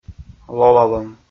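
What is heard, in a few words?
"лолалӧм", Komi, male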